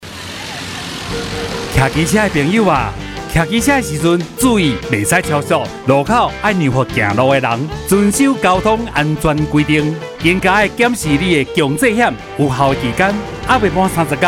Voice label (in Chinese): 機車族投保與交通安全篇(台語); 11月30日起，微型電動二輪車新車須投保強制險才能上路，現行已領有合格標章行駛上路的微型電動二輪車，應該在施行後2年內投保強制險。